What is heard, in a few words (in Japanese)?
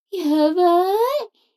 女の子 台詞, ASMR